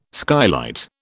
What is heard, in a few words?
"skylight", British